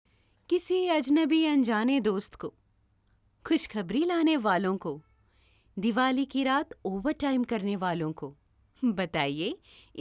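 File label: Hindi, voiceover; Cadbury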